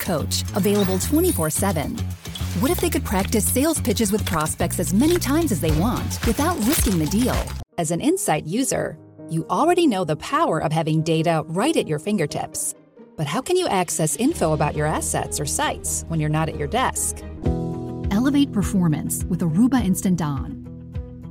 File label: EXPLAINER VIDEOS